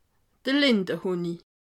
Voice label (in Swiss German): "linde", alsace